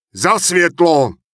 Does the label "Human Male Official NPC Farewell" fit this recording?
Yes